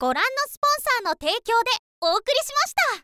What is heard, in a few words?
"goran no sponsor", girl; voice